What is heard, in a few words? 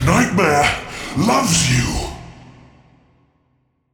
Midfight Mono; Malachai Combat